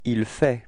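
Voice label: "faire il", French; frans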